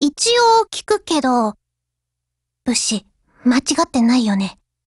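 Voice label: operation over, Japanese; GF Alarm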